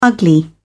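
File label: "ugly", British English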